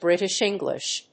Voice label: "British English", English